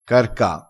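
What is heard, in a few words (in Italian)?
"carca", dialettale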